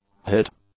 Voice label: "hit", English; nouns